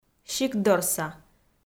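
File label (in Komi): "сиктдорса", Komi, female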